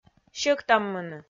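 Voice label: "сьӧктаммыны", Komi, female; kpv audio dict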